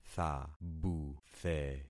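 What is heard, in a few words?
"thabuthe", concatenated syllables; Affixing experiment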